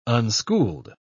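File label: "unschooled", English, British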